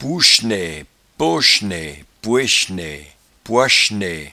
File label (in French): "pouechnaie", patois jura